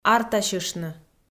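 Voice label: "артасьыштны", Komi, female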